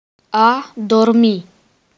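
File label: to sleep, Romanian; PictureDictionary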